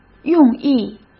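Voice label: "用意", Mandarin Chinese; chinese flashcards; hsk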